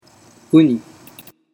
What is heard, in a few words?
"uni", Japanese